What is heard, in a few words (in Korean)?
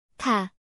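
"타", Korean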